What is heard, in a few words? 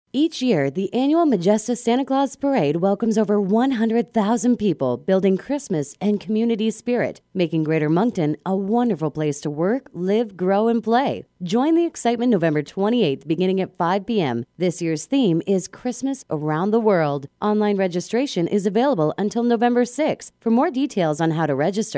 soft sell